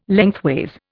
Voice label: "lengthways", Indian